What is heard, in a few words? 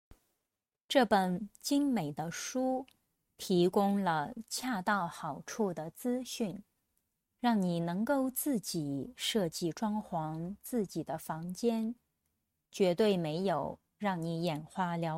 denoised